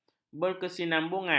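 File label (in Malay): "berkesinambungan", Malay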